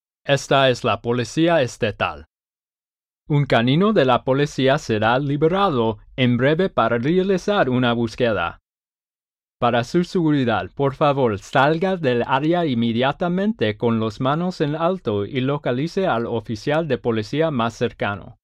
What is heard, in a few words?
State Police Canine Search (Spanish); Pathfinder